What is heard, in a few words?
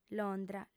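"londra", female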